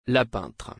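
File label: "La peintre", French; نقاش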